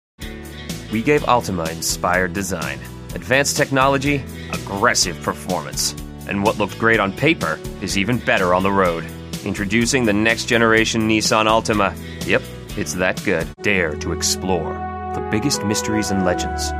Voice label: voiceover